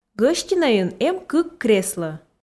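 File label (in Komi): "гӧстинӧйын эм кык креслӧ", Komi, female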